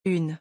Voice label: "une", French

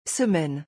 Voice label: "semaine", French

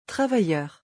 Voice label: "travailleur", French

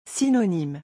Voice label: "synonyme", French

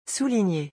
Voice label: "souligner", French

